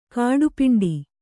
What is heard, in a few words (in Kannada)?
"ಗಟ್ಟಿಪಿಂಡ", Kannada